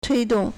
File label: "tui1dong4", Chinese